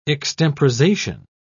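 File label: "extemporisation", British English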